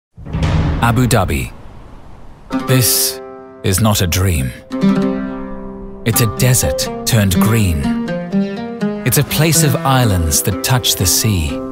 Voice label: English International, Male 30 40 years Medium Low